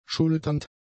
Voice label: "schulternd", German; adjectives

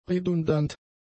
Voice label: "redundant", German; adjectives

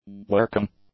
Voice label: "working", english; adjectives

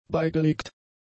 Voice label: "beigelegt", German; adjectives

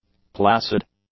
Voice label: "placid", english; adjectives

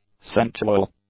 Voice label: "sensual", English; adjectives

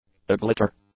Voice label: "aglitter", English; adjectives

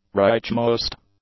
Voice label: "rightmost", English; adjectives

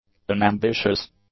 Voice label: "unambitious", English; adjectives

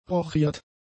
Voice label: "rochiert", German; adjectives

